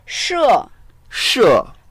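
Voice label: "she4", Mandarin Chinese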